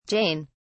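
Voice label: "jain", British English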